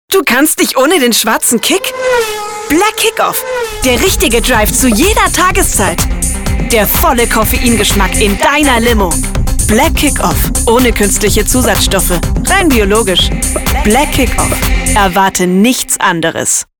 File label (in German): Werbung; Black Kick-Off